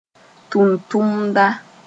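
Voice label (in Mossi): "tʋntʋmda", Mooré; worker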